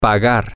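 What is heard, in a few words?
to Pay With, spanish, male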